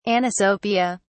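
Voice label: "anisopia", British English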